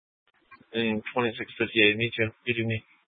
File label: Sheriff Dispatch, scanner